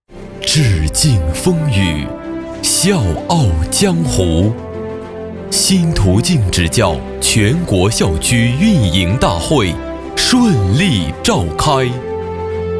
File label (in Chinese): 男 专题 学校专题